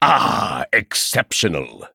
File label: Male Chess Piece Chess Emote Wow; White King